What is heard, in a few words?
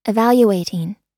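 "evaluating", American English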